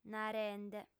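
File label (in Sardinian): "narende", female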